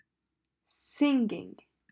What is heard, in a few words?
Singing